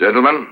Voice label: Gentlemen, Spoken, Industrial